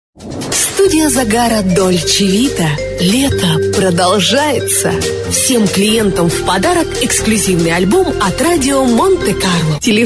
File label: voice female